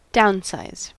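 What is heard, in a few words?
"downsize", US English; pronunciations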